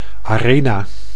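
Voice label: "arena", Dutch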